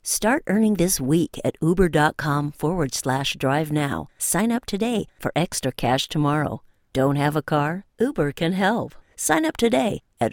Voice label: natural speak